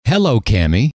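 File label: hello, male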